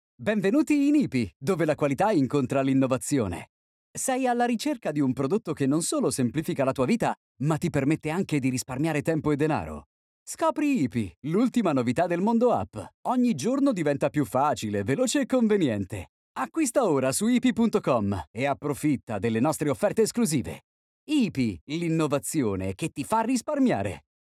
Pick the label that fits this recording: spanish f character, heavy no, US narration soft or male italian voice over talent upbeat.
male italian voice over talent upbeat